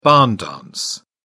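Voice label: "barn dance", British English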